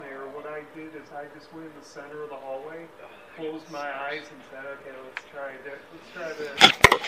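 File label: talking voice underneath; Swartz Creek; Swartz Creek School; Swartz Creek EVP 3; Spirit Chasers of the Unknown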